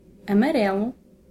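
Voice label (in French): "jaune", French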